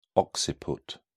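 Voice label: "occiput", British English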